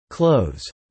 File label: "clothes", English